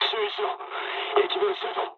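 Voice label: damaged water mood low, speech japanese; War Thunder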